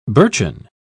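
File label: "birchen", British English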